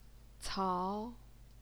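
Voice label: "cao", Mandarin Chinese, second tone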